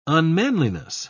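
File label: "unmanliness", English, British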